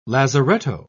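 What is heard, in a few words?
"lazaretto", English, British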